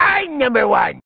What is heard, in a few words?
i'm number one male; numbers and letters